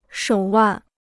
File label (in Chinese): "手腕", Chinese